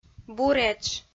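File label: "буретш", Komi-Zyrian, female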